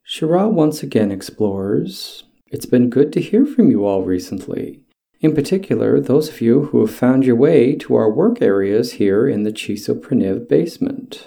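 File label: English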